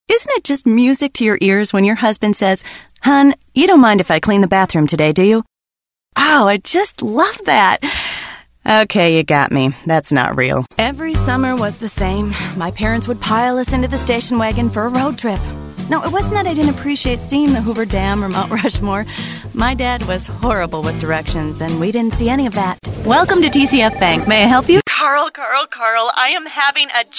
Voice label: commercial